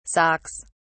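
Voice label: "socks", British English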